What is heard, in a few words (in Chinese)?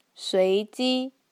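"suiji", Mandarin Chinese; 随机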